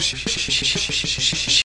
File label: postalveolars front, Romanian